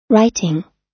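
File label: "writing", US English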